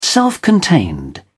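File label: "self-contained", British English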